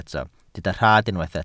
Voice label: "rhad", Welsh

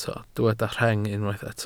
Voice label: "rheng", welsh

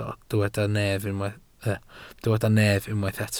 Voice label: "nef", Welsh

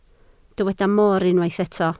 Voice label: "mor", Welsh

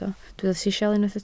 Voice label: "sisial", Welsh

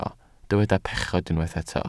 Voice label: "pechod", Welsh

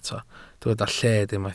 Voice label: "lled", welsh

